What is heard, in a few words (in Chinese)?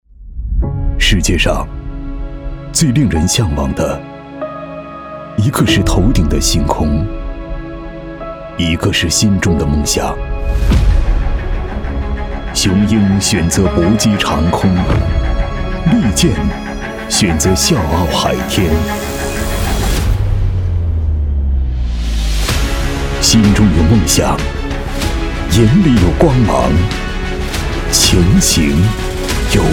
男, 走心旁白, 海军航空大学宣传片